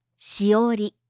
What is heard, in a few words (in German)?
"shiori", japanische maedchennamen